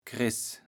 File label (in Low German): "Chriss", kaevels platt